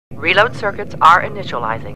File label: Computer Voice; Star Trek; StarTrekLCAR